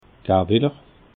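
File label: "gawiller", Alsatian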